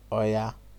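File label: "oya", Nigerian Pidgin, male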